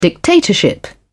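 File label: "dictatorship", British English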